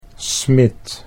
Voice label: "smid", Dutch